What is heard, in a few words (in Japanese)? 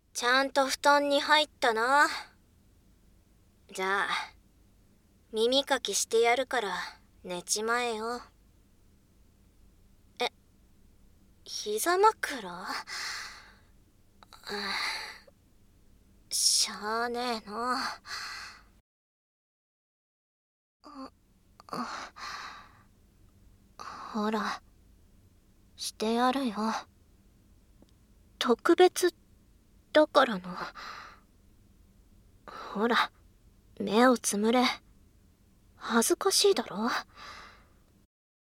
耳かき（右耳）（声のみ~ノイズ除去なし）; メイドさんの耳かき; ますずさんと耳かき; 声のみ版~ノイズ除去なし版